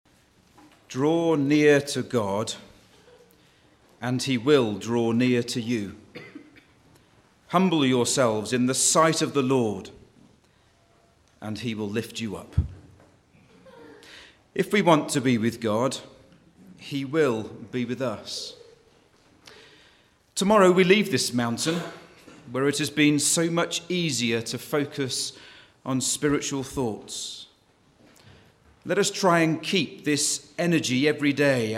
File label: Choir Narration